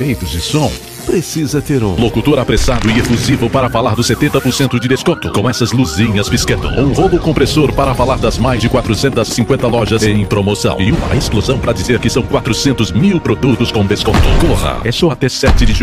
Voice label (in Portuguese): Comercial, voice; Shopping Recife